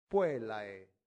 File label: "puellae", latin; santillana